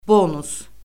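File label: "bonus", Azerbaijani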